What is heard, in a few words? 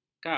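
"kap", Malay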